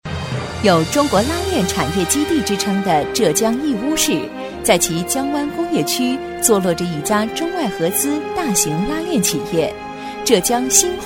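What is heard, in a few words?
chinese female voiceover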